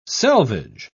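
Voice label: "selvedge", British English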